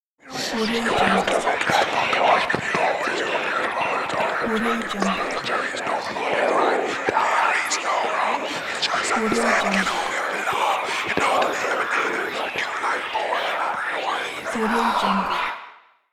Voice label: whispering voices

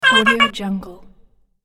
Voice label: cartoon voice game fun

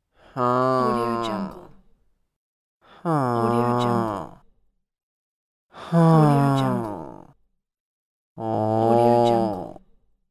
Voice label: cartoon sad male voice

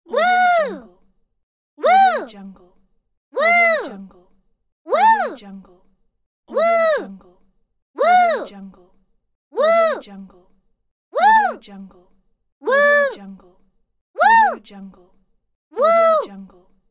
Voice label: cartoon girl positive surprise pack

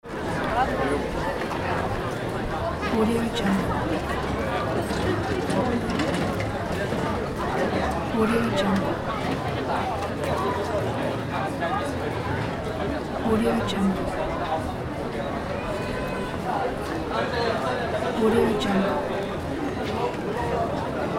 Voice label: people talking outside

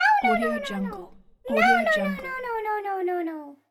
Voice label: cartoon girl no no

